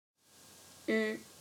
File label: "u", french